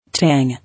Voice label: "Tang", English

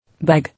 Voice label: "Beg", English

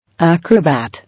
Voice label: "Acrobat", English

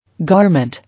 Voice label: "Garment", English

